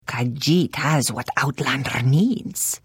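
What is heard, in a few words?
Service, Khajiit female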